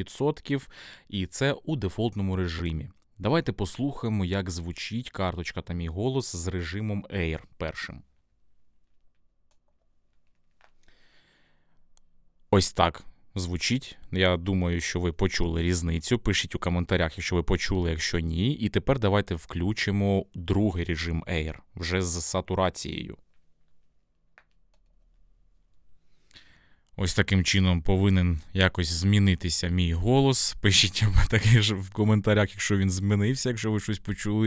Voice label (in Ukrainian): focusrite scarlett solo test golosu; Тест голосу; Focusrite Scarlett Solo це бюджетний аудіоінтерфейс за 180 $